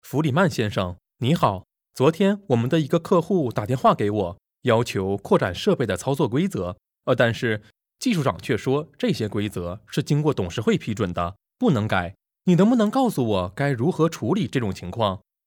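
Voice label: Chinese Mandarin Narration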